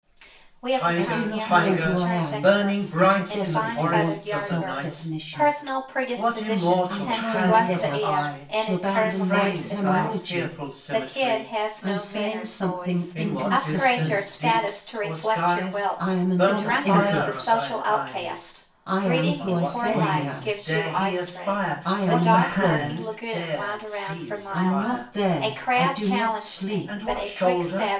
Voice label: mic, speech only; Separated sources